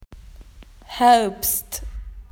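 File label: "automn", Australian English; PictureDictionary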